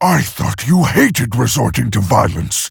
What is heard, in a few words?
Male Orc Idle; Thrall; Jaina